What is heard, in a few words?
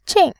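"jin", Mandarin, fourth tone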